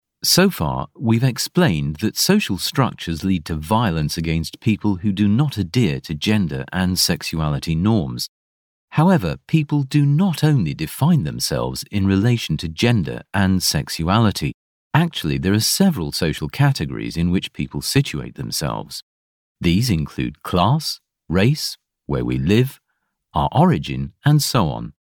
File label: english british explainer videos; multi award-nominated voice actor